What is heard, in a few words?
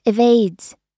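"evades", American English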